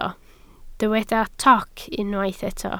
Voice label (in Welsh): "toc", Welsh